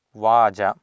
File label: "vaaja", Sanskrit